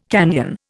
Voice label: "canyon", Indian